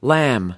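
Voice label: "lamb", US English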